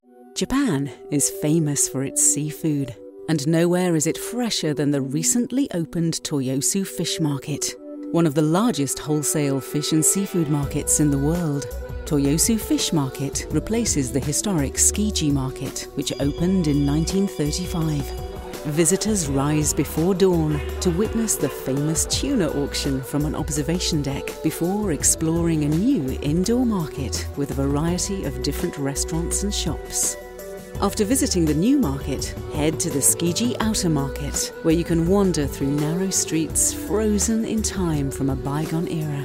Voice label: X Ads, British Voice Actor; Voice123; Only In Tokyo; One of a series of 6 X Ads I recorded for Japanese Tourism films